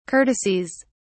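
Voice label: "courtesies", British English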